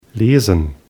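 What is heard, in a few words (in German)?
"lesen", German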